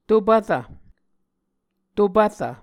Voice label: "topvrv", Muscogee

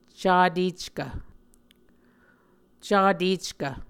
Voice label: "cateckv", muscogee

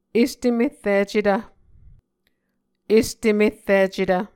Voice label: "estemerricetv", Muscogee

